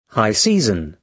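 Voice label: "high season", British English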